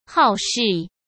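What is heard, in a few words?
"好事", Mandarin Chinese; chinese-flashcards; hsk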